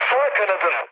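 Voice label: Turkish right wing, mood med, speech; War Thunder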